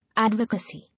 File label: "Advocacy", English